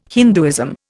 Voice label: "Hinduism", Indian